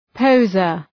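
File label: "poseur", English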